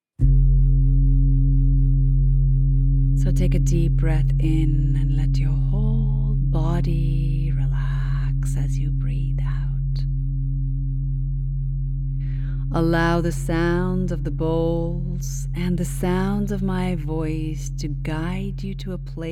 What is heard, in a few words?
Deep Relaxation and Unwinding Meditation Guided